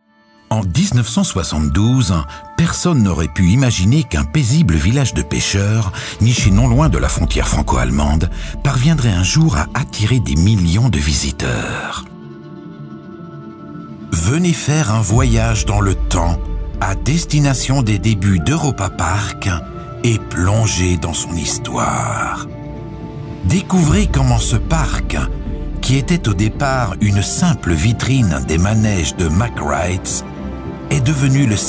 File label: Corporate; EUROPA-PARK